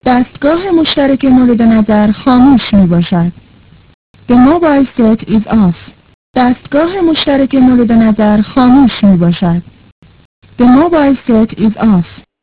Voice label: operator voice mobile off; hamrahaval